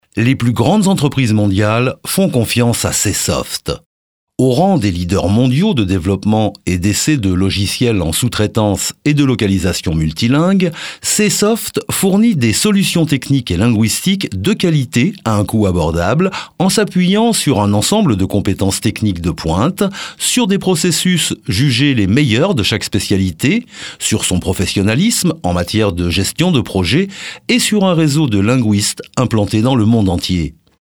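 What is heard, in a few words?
French Male, voiceover; French FRA Male; Multilingual Voiceover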